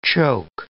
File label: "choke", English